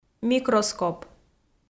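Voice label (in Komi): "микроскоп", Komi, female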